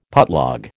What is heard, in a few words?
"putlog", American